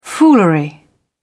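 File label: "foolery", British English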